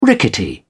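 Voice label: "rickety", British English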